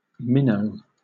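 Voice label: "minnow", British English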